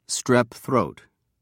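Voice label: "strep throat", English, British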